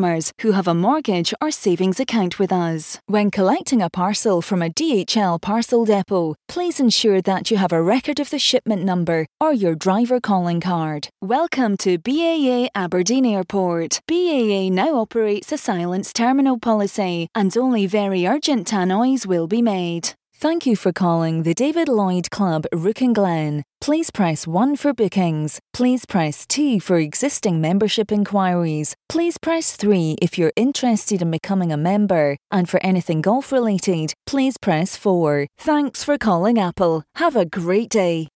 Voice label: scottish on hold ivr

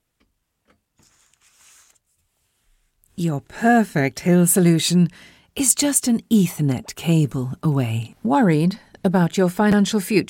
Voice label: commercial

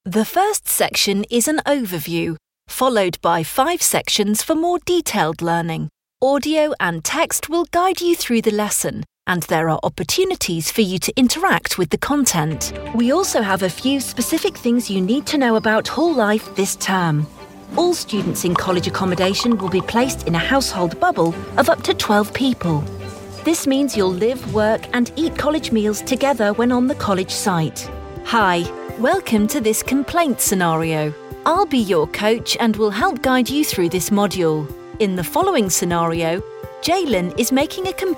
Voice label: elearning

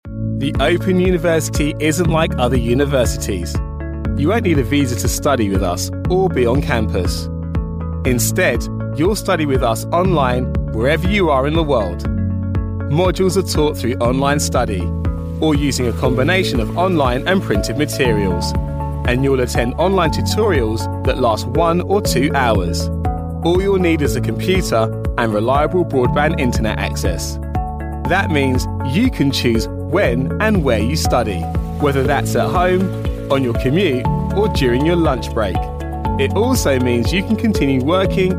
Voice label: webpromo; open university

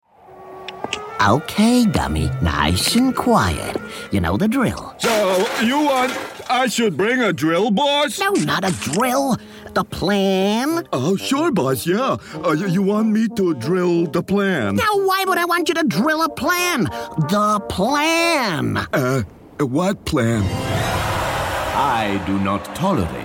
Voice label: animation

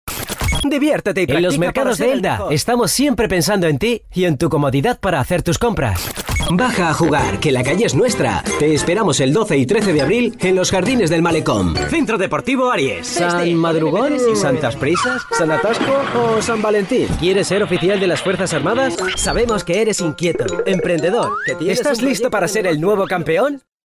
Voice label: spanish male commercial

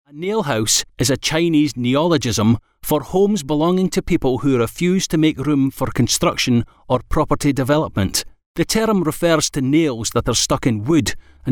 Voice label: scottish educational

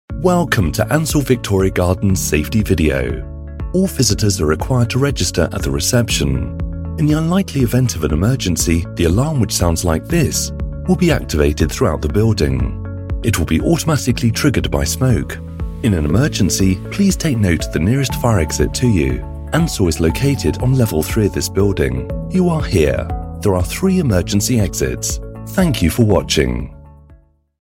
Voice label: system announcements

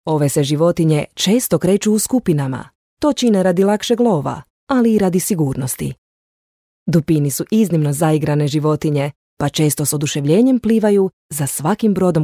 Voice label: croatian voice documentary

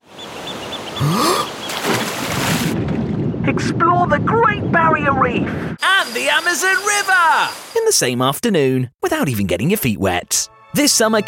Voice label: commercial

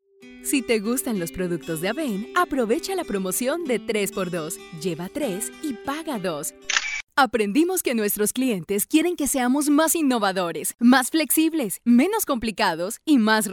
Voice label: latin spanish female

